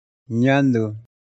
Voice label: "nandu", Tapiete